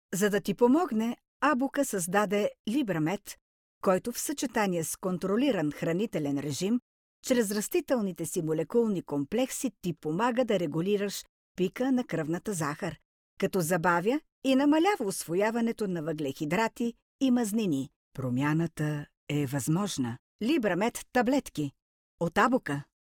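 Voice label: bulgarian female commercial; voice demos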